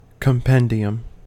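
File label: "compendium", US English; pronunciations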